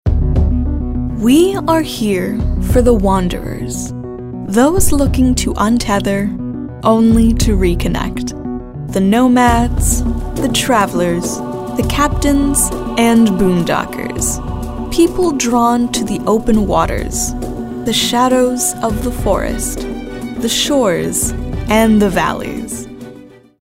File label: storyteller